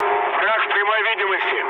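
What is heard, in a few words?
Russian enemy detected mood med, speech; War Thunder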